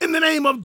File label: PREACHIN, Gospel, PREACHER